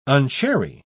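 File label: "unchary", British English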